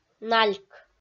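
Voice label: "нальк", Komi-Zyrian, female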